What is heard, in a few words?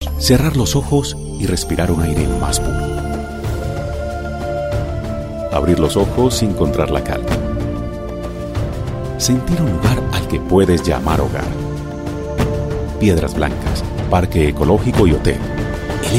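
Latin American Spanish Commercial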